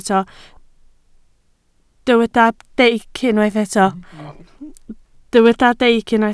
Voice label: "deic", Welsh

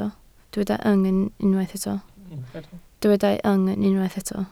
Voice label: "yng", Welsh

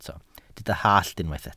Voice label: "hallt", Welsh

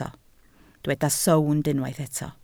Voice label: "sownd", Welsh

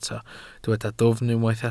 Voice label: "dwfn", Welsh

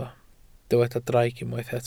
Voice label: "draig", Welsh

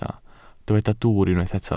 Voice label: "dwwr", Welsh

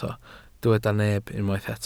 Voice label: "neb", Welsh

